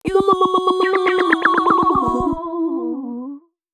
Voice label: female d120bpm, voice; FX; Mutekki Media; Dance Ultra Voice VOL-1

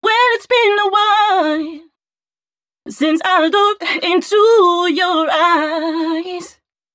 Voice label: female 120bpm, Dance Ultra Voice hooklines; Mutekki Media; Dance Ultra Voice VOL-1

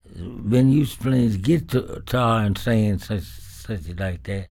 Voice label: talk, spoken, blues soul; Spectrasonics Vocal Planet

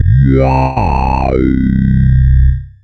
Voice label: FORMANT, Funky Vocals; USB Soundscan vol.18; AKAI; COMPUTER

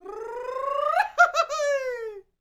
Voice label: MEXICO VOICE, MEXICAN; ILIO; Vocal Planet VOL-5

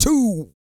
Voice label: COUNT, Vocal Planet, R&B & Dance, COUNTING; ILIO

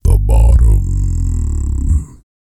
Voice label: E SPEECH, Vocal Planet R&B Dance; BASS CATCH; Spectrasonics

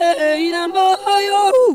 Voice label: AFRA GIRL, ETHNIC; AMG; Global Trance Mission VOL-2

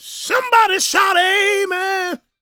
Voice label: PREACHIN, Gospel PREACHER; Spectrasonics; Vocal Planet

